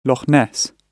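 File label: "loch ness", British English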